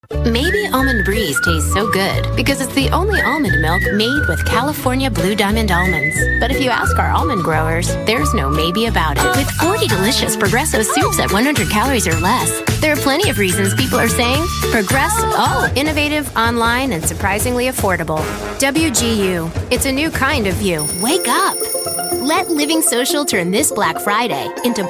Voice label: american female commercials